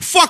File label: "fuck", expletive